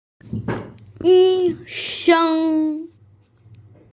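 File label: "yi sheng", Mandarin Chinese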